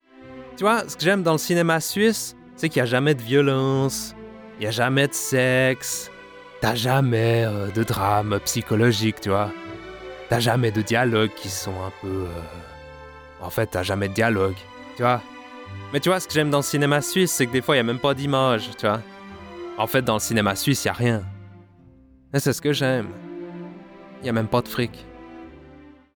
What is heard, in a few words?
french others